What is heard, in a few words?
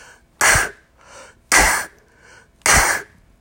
K Voiceless